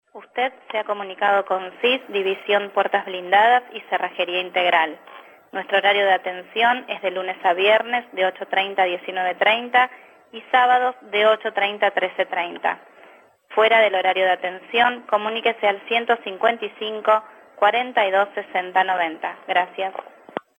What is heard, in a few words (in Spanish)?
mensajes telefonicos